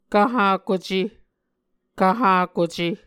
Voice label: "kvhakuce", Muscogee; Mvskoke Words with Voicing Consonants; Martin, Jack B., and Margaret McKane Mauldin. 2023. A Dictionary of Creek/Muskogee; SIL International